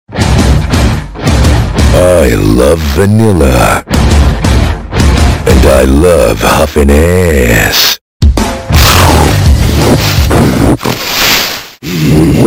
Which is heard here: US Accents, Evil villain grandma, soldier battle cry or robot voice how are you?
soldier battle cry